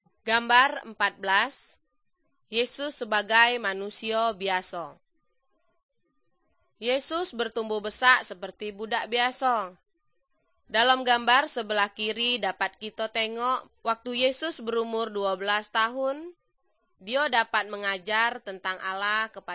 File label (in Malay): good news malay jambi